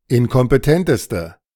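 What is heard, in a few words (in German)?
"inkompetenteste", German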